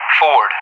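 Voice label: "Ford", English; Callsign; DCS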